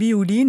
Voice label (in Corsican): “viulinu”, Moita Verde (nord)